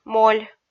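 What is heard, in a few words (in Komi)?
"моль", Komi, female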